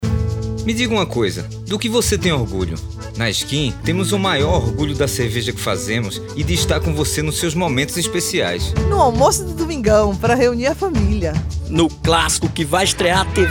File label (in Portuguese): SPOT No samba; SCHIN ORGULHO